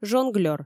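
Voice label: "жонглёр", Komi, female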